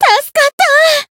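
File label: Voice healed, japanese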